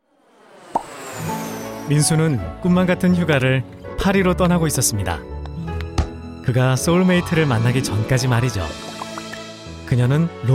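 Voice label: M, Korean, male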